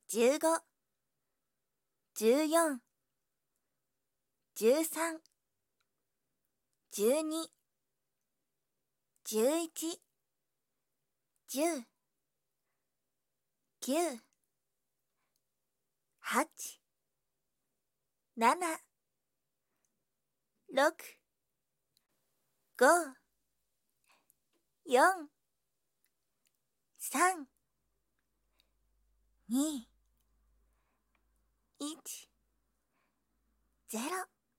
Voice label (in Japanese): カウント ステレオ